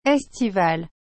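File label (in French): "estivale", French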